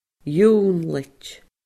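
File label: "ionnlaid", Scottish Gaelic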